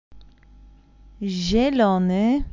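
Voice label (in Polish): "zielony", Polish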